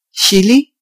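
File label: "chile", Dutch; gcompris-data; geography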